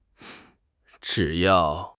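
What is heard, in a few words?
"zhi3 yao4", Mandarin Chinese; HSK; HSK4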